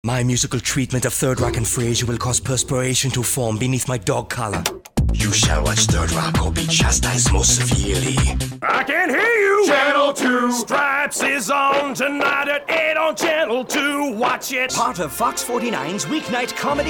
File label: Commercial Character